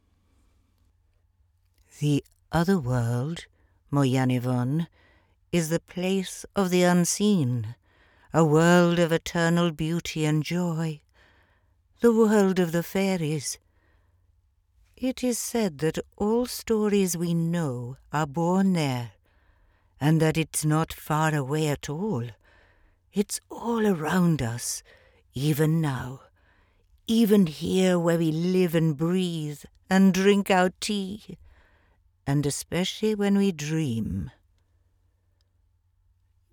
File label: Game Narration